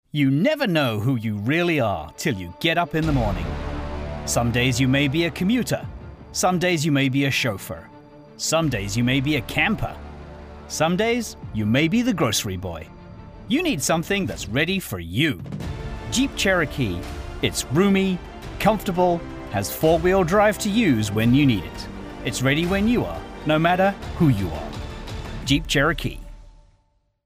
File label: ad; Jeep